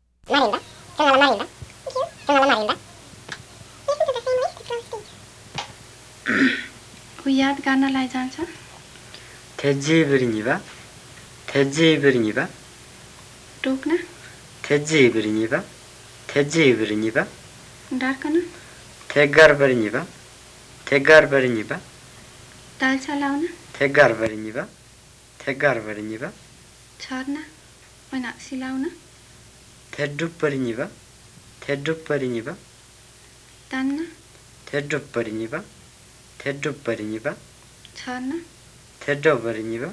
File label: Western Tamang